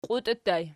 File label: "qiutii", Archi